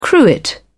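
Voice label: "cruet", British English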